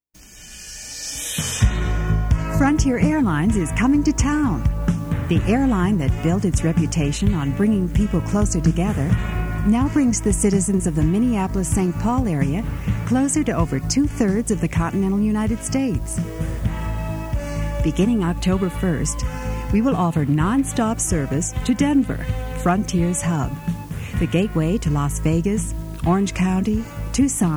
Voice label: narration